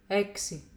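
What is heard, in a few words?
"eksi", female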